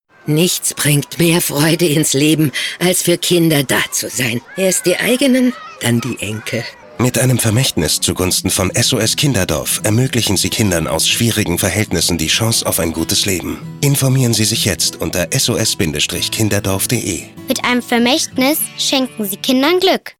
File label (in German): Trailer, maenner; SOS-Kinderdorf